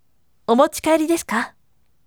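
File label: voice, firm; omochikaeri desuka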